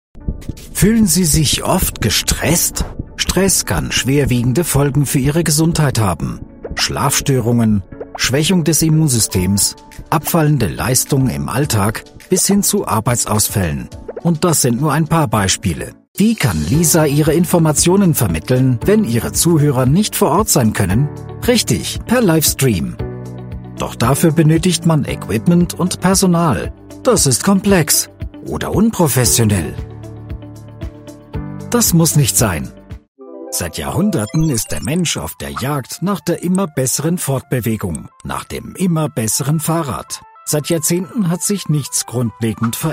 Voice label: german explainer videos

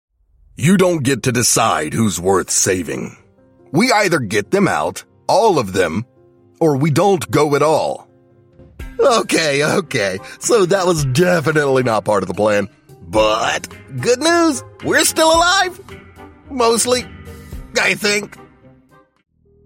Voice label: animation characters

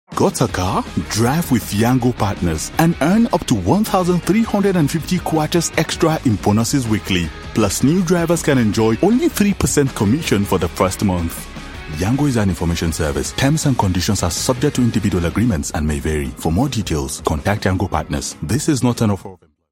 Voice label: commercial; yango

